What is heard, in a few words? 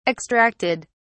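"extracted", British English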